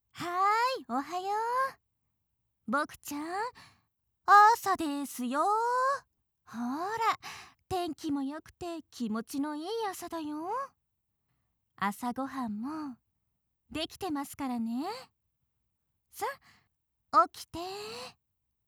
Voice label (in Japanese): SEあり, ASMR; ふたママ